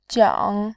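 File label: "jiang", Mandarin, third tone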